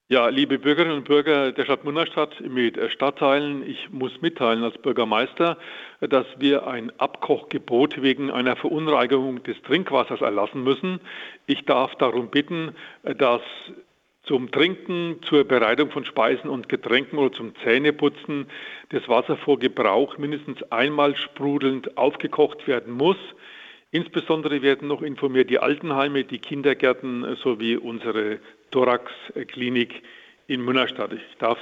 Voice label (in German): Durchsage; Münnerstadt